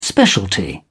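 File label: "specialty", British English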